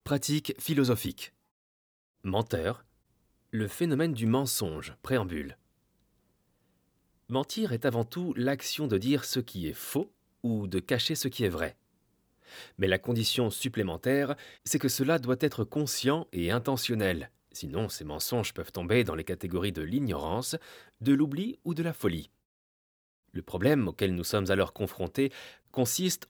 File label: male french audiobook narration; pratiques philosophiques